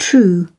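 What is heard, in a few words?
"true", British English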